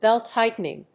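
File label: "belt-tightening", English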